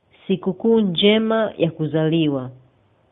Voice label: birthday swahili

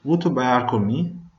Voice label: dance, Venetian

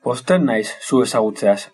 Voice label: meet, basque

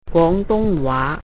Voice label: "cantonese", cantonese

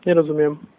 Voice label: no understand, slovak

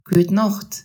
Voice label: good night, alsatian

